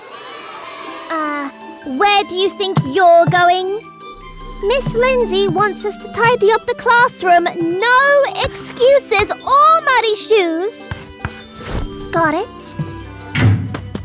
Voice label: Accent